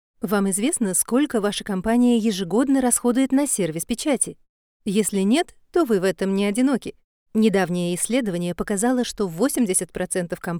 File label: russian corporate; Female Russian talent with range. Warm and confident.